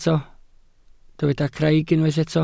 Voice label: "craig", Welsh